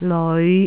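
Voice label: "leoi5", Cantonese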